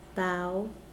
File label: "four", Mokilese